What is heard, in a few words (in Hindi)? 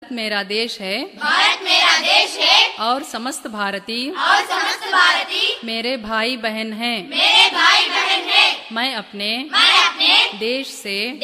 Hindi pledge